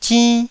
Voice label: "zinn1", Taiwanese syllable